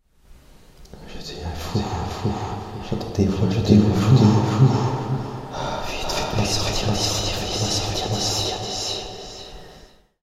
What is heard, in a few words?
voice crazy